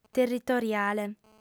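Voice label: "territoriale", female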